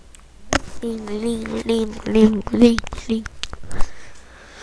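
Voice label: "ring", spoken word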